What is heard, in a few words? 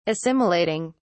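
"assimilating", British English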